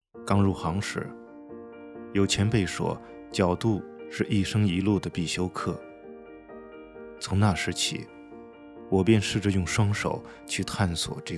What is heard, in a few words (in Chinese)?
抒情解说